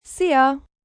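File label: Hello Hungarian, Greetings, female; Greetings And Goodbye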